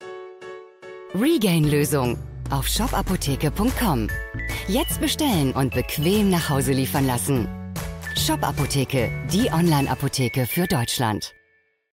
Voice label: Spot; shop apotheke; Regaine

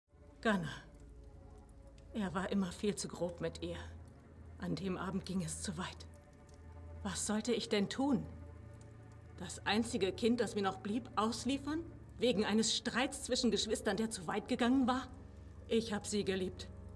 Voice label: SYNCHRO

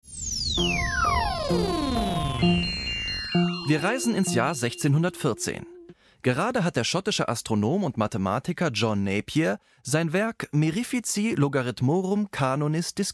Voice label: Kommentar Erklaerteil Mathewelten

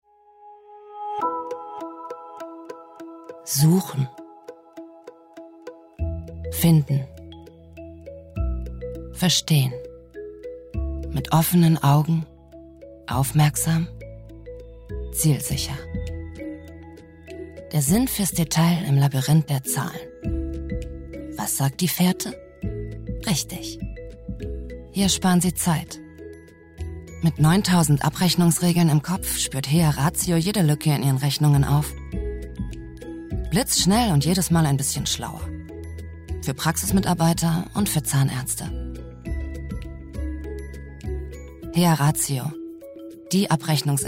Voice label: Imagefilm; Health AG

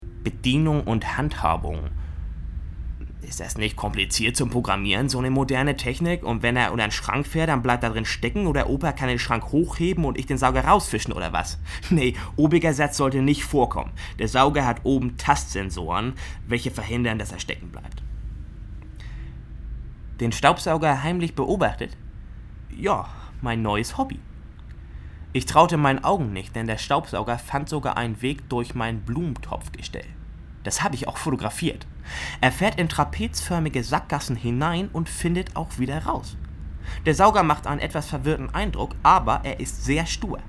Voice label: norddeutsch

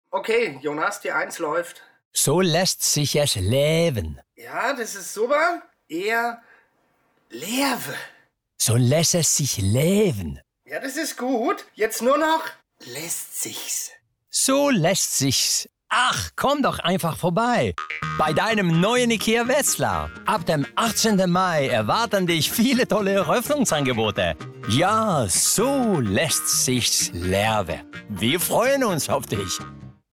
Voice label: eroeffnung funkspot; ikea wetzlar

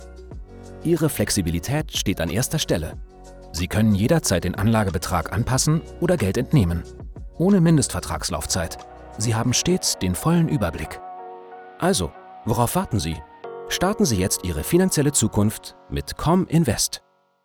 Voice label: Erklaerfilm; Cominvest

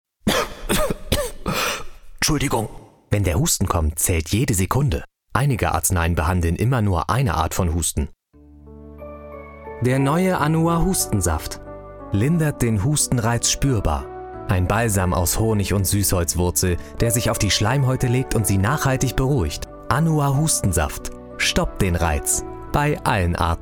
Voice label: Werbung Hustensaft; LOFT VOICES

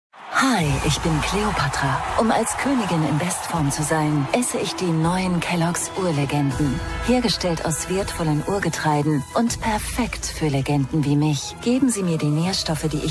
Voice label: werbung sommer; kelloggs

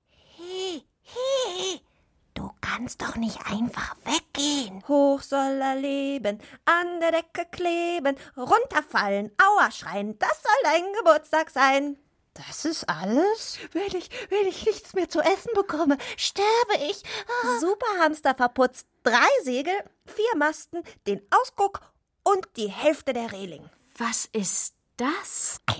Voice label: Trickstimme; Superhamster; SWR2 Spielraum

